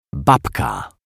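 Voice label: "babka", Polish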